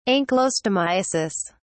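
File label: "ankylostomiasis", British English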